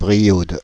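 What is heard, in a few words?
"Paris Brioude", French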